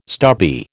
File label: "stubby", American